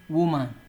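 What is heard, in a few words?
"woman", Nigerian Pidgin, male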